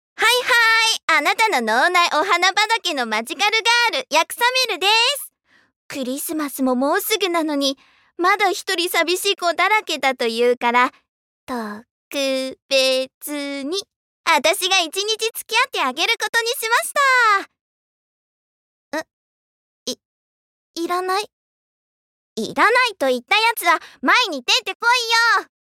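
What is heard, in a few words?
voice sample, idol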